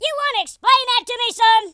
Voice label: what the, Drill Sergeant; speech

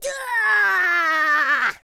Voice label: angry, speech, Madchester

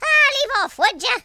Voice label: "go away", Irish; speech

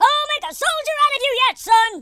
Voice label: "amazing", Drill Sergeant; speech

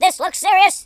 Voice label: uh oh; speech

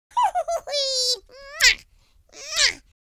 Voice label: happy, Irish; speech

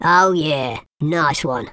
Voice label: "oops", speech

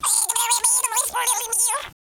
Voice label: pray, speech, Alien; Worms3D

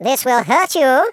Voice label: "fireball", Patronising speech

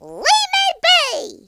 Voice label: "go away", Cowgirl; speech

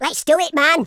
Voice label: orders, Madchester

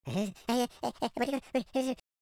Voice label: scared, speech, Madchester

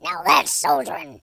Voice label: "amazing", speech

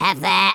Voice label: "fireball", speech

